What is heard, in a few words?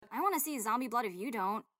skype voice; Life is Strange 2; gamesoundopedia